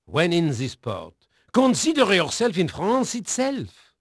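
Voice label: French male, voice; Pirates Of The Caribbean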